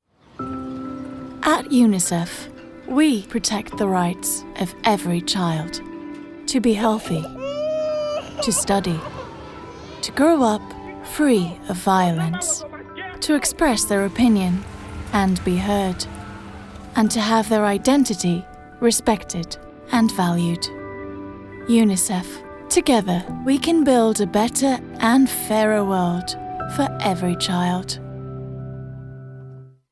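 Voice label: Greek English Female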